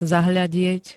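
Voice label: "zahľadieť", Slovak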